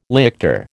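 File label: "lictor", American English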